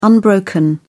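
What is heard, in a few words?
"unbroken", British English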